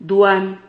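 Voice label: "duan", Spanish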